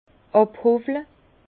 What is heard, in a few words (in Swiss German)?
"abhowle", Bas Rhin; vin; raboter